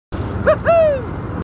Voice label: marines, aussie; h2dialogue

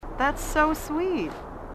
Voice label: female marine, sassy

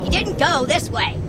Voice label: grunt; h3dialogue; staten

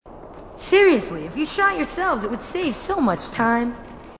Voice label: female marine, marine tough

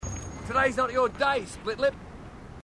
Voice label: marine, aussie; h2dialogue

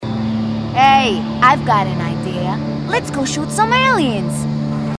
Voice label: female marine

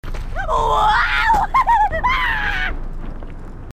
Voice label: grunt; h2dialogue; grunt crazy

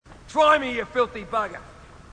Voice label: marine, aussie; h2dialogue

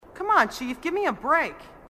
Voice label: marine female, sassy

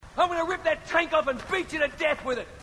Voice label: marine, aussie; h2dialogue

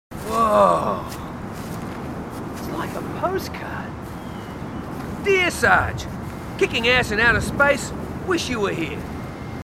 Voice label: marines, aussie; h2dialogue

